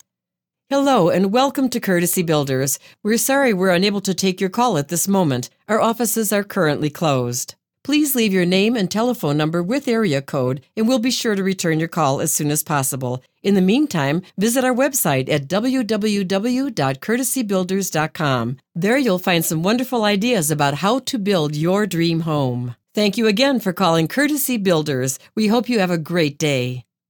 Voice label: IVR